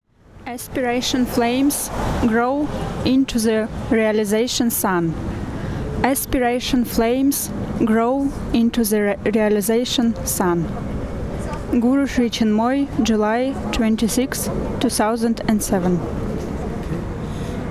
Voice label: poem